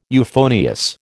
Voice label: "euphonious", American